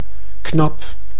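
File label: "knap", Dutch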